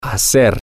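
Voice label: to Do Make, spanish, male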